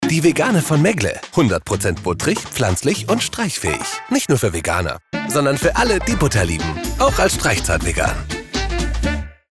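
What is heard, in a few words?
German male Commercial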